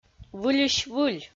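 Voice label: "выльысь-выль", Komi, female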